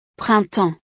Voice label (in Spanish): "printemps", frances; los meses